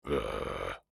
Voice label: Emote Negative